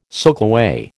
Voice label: "soakaway", American

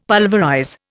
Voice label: "pulverize", Indian; Dictionary

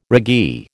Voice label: "raggee", American

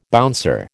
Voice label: "bouncer", American

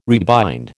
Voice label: "rebind", American

